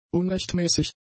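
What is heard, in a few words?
"unrechtmäßig", German; adjectives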